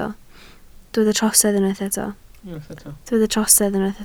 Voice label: "trosedd", Welsh